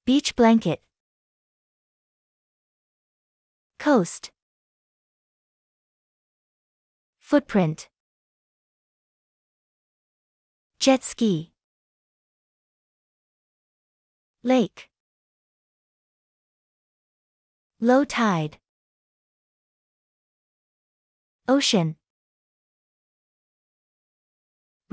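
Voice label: American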